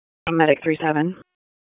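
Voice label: EMS DISP, radio; wake p25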